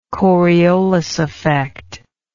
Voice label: "coriolis effect", English